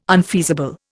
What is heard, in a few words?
"unfeasible", Indian